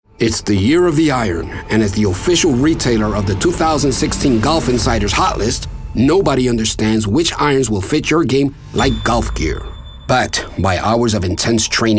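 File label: English Announcer; Golf Gear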